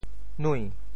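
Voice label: "nui2", Teochew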